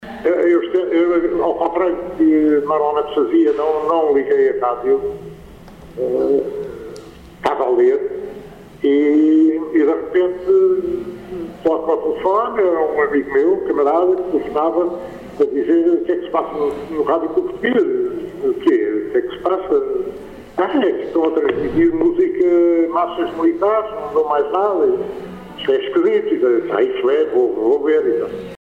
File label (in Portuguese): telefonema